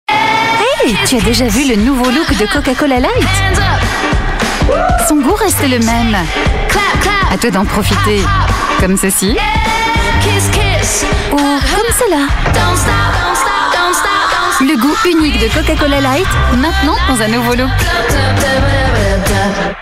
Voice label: french voice over female commercial